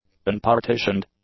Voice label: "unpartitioned", english; adjectives